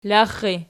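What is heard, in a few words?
"laxxi" nominative, Archi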